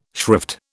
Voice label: "shrift", British